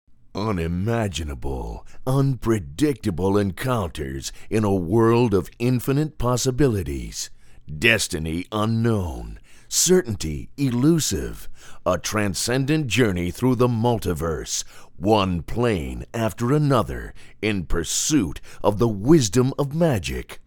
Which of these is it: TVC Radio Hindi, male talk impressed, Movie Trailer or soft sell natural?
Movie Trailer